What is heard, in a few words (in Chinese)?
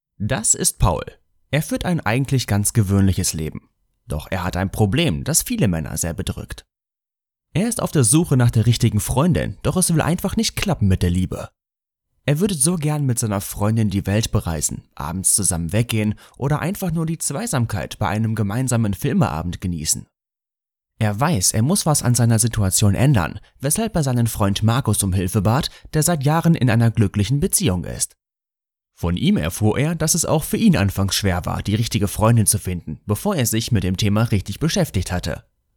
德语男, 广告